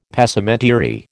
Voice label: "Passementerie", American

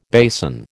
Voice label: "basin", American

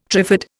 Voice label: "trifid", Indian

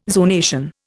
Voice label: "zonation", Indian

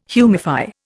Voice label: "humify", Indian

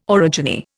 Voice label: "orogeny", Indian

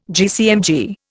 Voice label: "GCMG", Indian

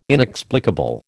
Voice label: "inexplicable", American

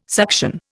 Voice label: "suction", Indian

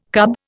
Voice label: "cub", Indian

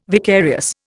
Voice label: "vicarious", Indian

